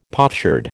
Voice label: "potsherd", American

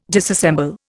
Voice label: "disassemble", Indian

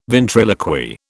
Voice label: "ventriloquy", British

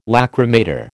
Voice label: "lachrymator", American

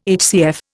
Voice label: "HCF", Indian

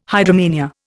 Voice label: "hydromania", Indian

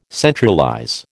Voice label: "centralize", American

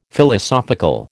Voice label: "Philosophical", American

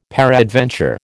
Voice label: "peradventure", American

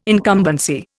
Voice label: "incumbency", Indian

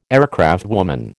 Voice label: "aircraftwoman", American

